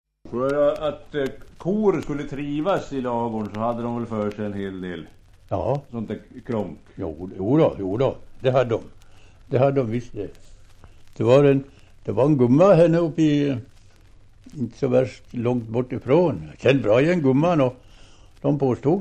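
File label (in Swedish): Västerfärnebo Västmanland, Svenska sagor, dialekter; Folkminnen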